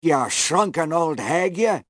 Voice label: old jewish man, job bad

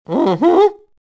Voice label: squeaky voice teen

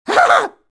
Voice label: squeaky voice teen job good

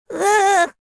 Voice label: elf scared; ea tsto

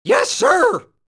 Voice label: squeaky voice teen tap

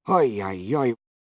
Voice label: old jewish man tap

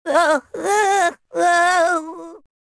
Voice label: elf scared; ea tsto

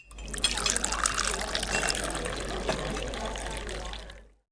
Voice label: old jewish man overpass diner tap

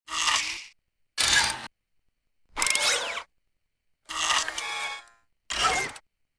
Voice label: female gnome

